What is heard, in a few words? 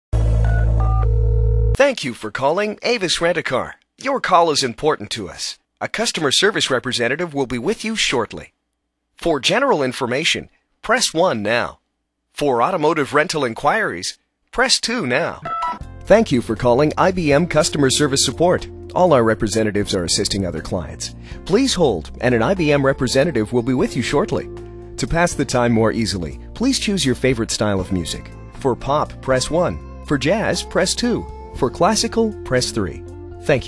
onhold